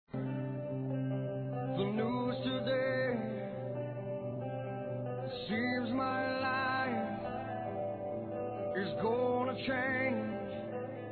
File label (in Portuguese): ANIVERSÁRIO DE PADRINHO F, Telemensagens, Fem; Aniversários; Aniv Familiar